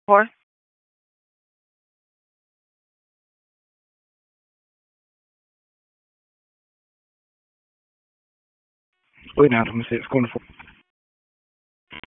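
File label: Sheriff's Office Dispatch 1, p25; wake p25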